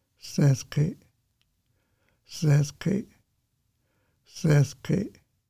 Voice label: Salmonberry Thimbleberry Fresh Edible Shoots Musqueam name pronunciation